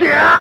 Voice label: "yeah yeah", voice; ooh ahh; ryu; street fighter

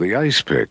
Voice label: "ice pick", Voice

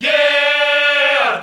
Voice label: "yeah", group of men

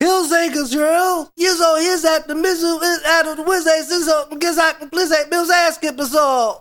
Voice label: gibbrish; Voice

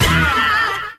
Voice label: "yeah" hair metal guy; ooh ahh

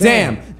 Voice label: "damn", expletives

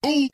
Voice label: "hoo"

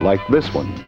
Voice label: like this one, male

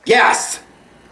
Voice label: "yes", tourettes guy

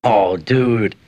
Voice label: "oh dude", expletives, g-rated swears; Voice

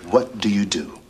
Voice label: "what do you do", male; questions

